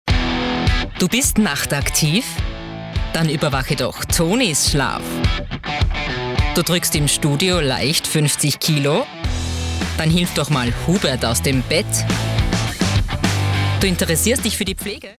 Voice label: Kinospot; Pflegestarter innen; OOeG

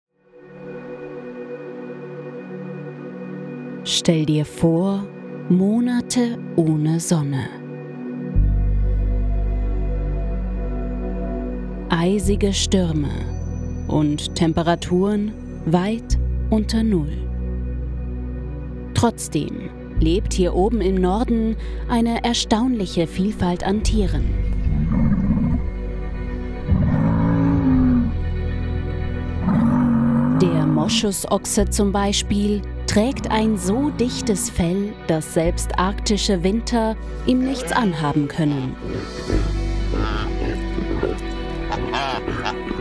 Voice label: Dokumentation Elefanten

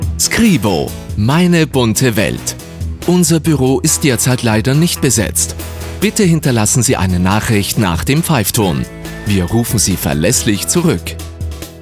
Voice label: TELEFONANSAGE NACHTSCHALTUNG; Skribo

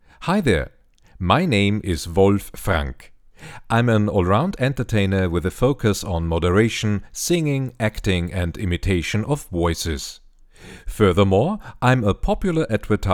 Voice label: englisch

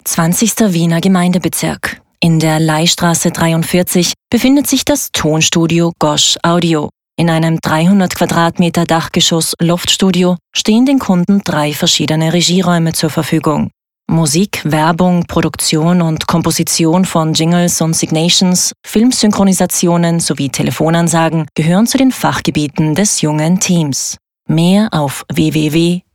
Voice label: Werbung sachlich

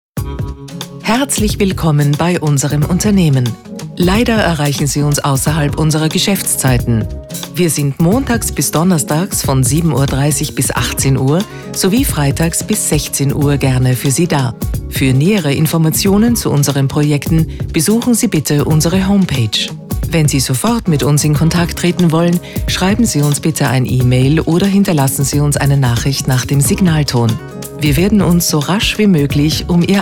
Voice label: Telefonband

